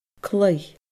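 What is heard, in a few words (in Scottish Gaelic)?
"claoidh", Scottish Gaelic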